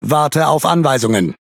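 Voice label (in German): Speech Pilots Bomber At position, DE; World Of Warships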